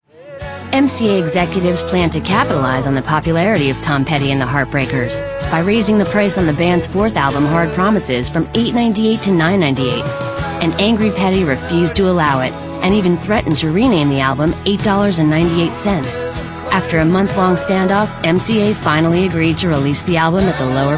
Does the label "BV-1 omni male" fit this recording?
No